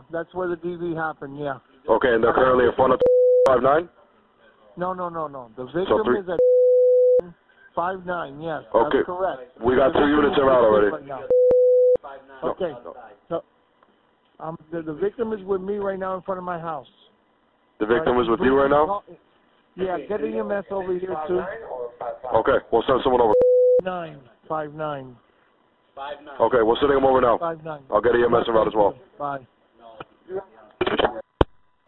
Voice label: 911 Call redacted; WNY